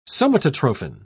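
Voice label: "somatotrophin", British English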